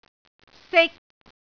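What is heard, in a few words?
"sik3", Cantonese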